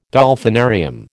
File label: "Dolphinarium", American